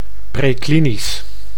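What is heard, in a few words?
"preklinisch", Dutch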